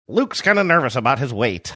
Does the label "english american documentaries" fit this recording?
No